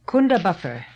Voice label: "Kundabuffer", pronounciations; Fourth Way; Beelzebub